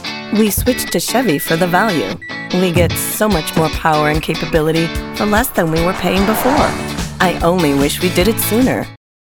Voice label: Commercial; Chevy